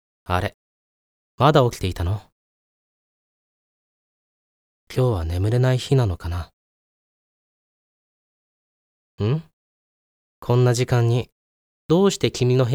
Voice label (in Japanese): 安眠（BGMなし）, ASMR